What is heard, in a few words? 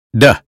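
"day", American English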